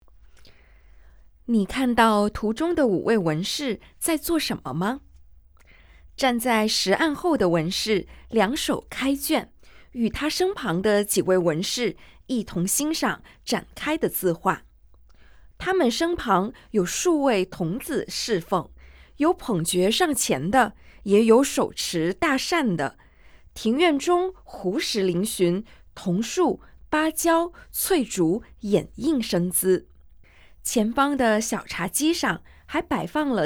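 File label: Mandarin, audio guide; stories untold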